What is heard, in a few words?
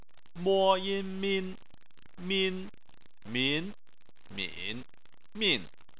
"min", chinese